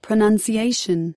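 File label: "pronunciation", US English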